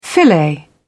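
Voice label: "filet", English, British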